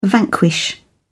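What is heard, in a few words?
"vanquish", British English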